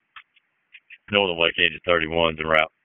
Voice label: PS TAC, p25

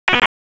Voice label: Police LE Ops, p25; wake p25

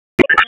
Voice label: Police Southeast District Disp, p25; Raleigh

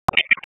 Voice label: Raleigh Police Northwest District Tac, p25

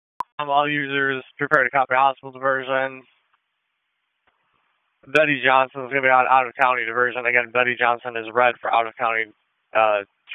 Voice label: EMS ALERT; wake p25

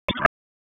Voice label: Raleigh Police Northwest District Tac, p25; wake p25

